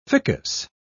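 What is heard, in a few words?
"ficus", English, British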